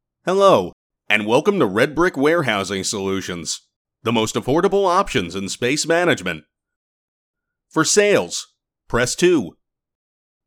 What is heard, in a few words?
Phone menu